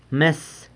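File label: "mes", teacher, San Lucas Quiaviní Zapotec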